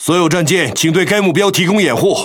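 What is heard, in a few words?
Speech Quick Commands Ally Ship, Chinese; World Of Warships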